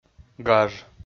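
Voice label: "гаж", Komi, male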